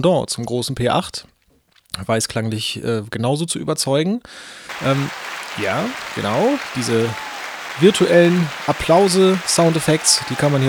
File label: zoom podtrak p4 limiter